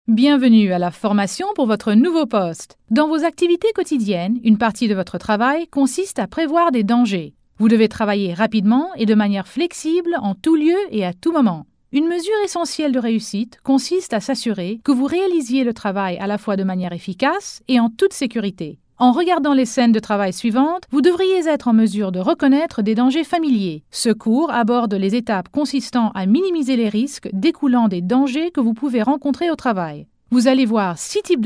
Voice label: Euro French